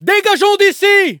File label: defeat, fr; cookie run